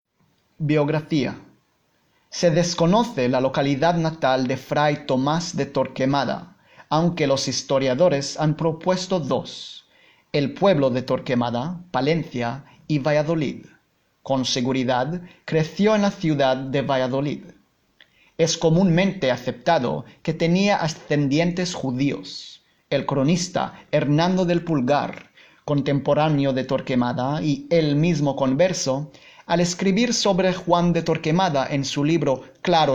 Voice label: spanish

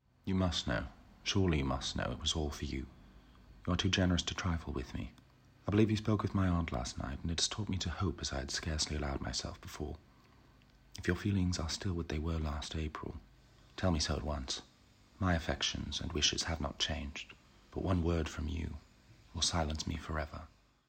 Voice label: British English Language Reel

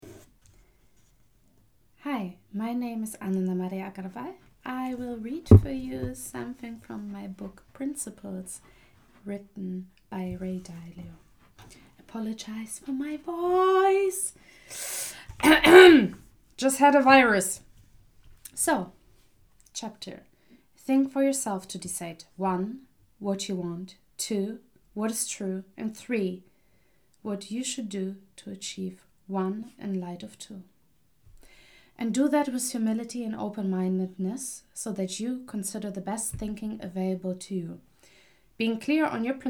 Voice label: Language Reel English

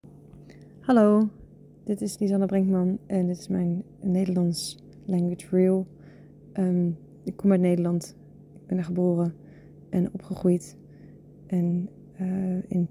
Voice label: Dutch language

